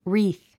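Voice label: "wreath", English word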